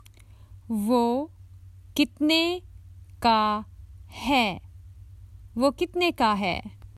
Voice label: Common Hindi Phrases for travel How much does that cost